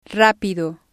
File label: "rapido", Spanish